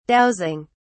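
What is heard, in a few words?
"dowsing", British English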